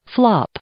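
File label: "flop", English; verb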